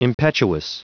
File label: "impetuous", pronounce